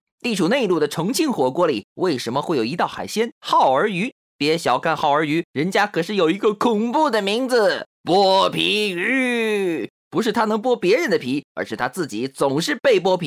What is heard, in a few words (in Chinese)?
男播; 我是不白痴男播